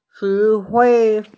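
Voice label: "zhi3hui1", Mandarin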